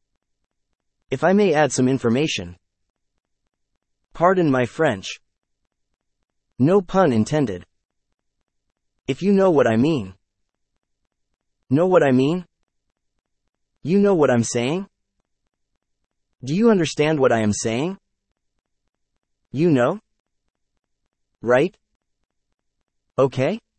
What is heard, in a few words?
Various conversational phrases; eslsimple; 49 Various conversational phrases